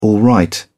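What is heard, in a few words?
"alright", British English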